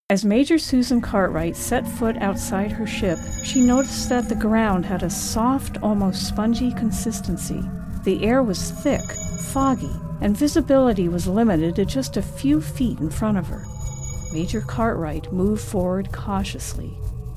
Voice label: Mysterious Narrative